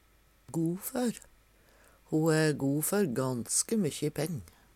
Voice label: go for, Numedalsmål; go`før; har, eig; Uttrykk

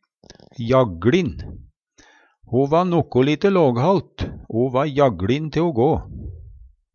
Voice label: jagLin, Numedalsmål; ustø; Adverb

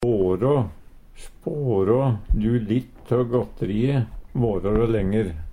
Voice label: "vara", Numedalsmål; Spårå du litt tå gødteriet vårå det lenger; Verb

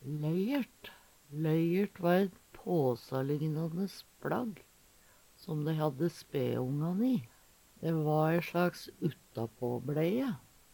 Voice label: loyert, Numedalsmål; løyert; Løyerten var laga av bomull.

